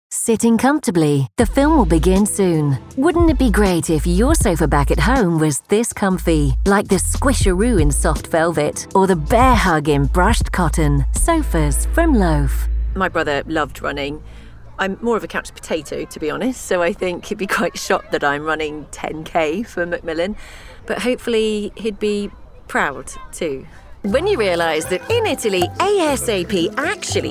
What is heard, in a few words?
Commercial Showreel, Commercial voice reel; commercial demo